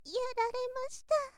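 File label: girl, "yararema"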